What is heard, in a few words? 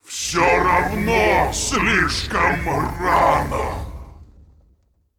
Male Demon Death; Hearthstone; датамайн обновления 5.0